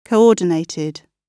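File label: "coordinated", British English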